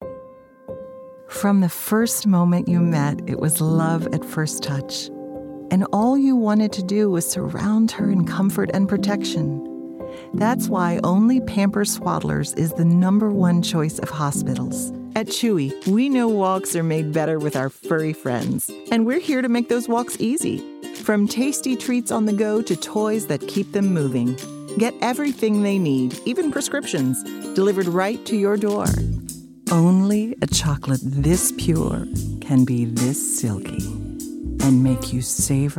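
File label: American English, Commercial; GoLocalise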